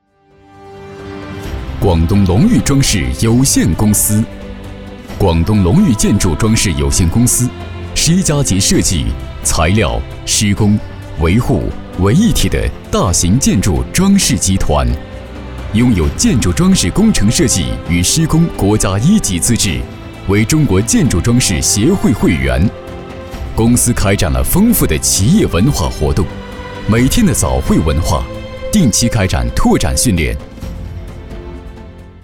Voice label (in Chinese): 宣传片, 企业, 装饰公司, 激情; 龙域装饰公司